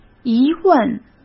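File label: "疑问", Mandarin Chinese; chinese-flashcards; hsk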